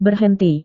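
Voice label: "berhenti", Indonesian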